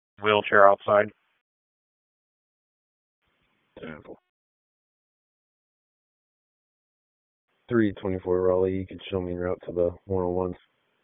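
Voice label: Raleigh Police Northeast District Dispatch, p25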